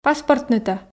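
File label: "паспортнытӧ", Komi, female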